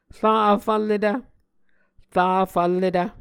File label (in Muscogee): "ra vfvlletv", Muscogee